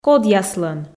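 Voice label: "кодъяслӧн", Komi, female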